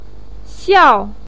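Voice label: "xiao4", Mandarin pinyin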